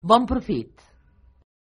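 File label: bon appetit Catalan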